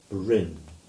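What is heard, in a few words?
"Brynn" English; Bren 1244 (Gover, 1948)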